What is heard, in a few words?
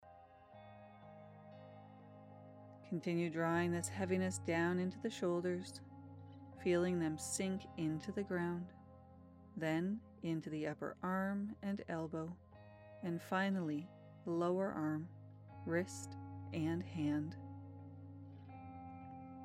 Colour Coded Guided Meditation for Relaxation Integration Female Voice